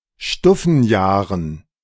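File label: "Stuffenjahren", German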